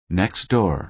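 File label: "next door", American English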